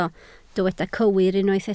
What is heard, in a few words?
"cywir", Welsh